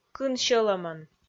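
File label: "кын сьӧлӧмӧн", Komi, female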